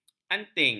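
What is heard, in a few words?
"anting", Malay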